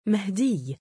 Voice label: "mahdiiy", male; Arabic